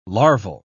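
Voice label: "larval", British English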